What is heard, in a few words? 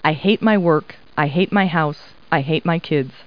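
woman; Talking Clip Words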